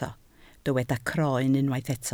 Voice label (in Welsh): "croen", Welsh